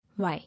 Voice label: "Why", English